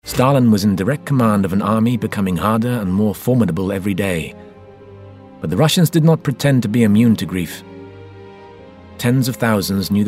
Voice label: narratives